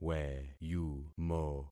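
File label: "weyumo", concatenated syllables; Affixing experiment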